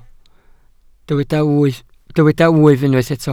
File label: "wyf", Welsh